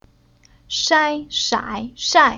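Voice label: "Shai", Chinese syllable; Уроки фонетического блока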